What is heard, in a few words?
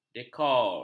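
"dekor", Malay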